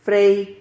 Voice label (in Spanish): "frei", Silabas